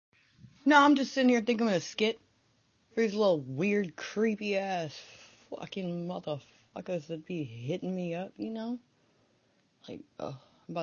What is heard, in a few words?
Audio Message